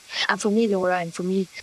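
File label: voice acting, es, female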